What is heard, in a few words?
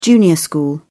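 "junior school", British English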